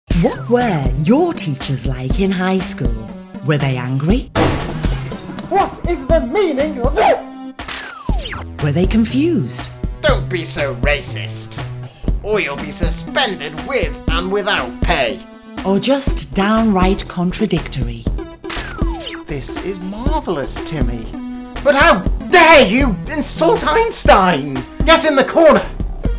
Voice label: commercial; detention with mr dodd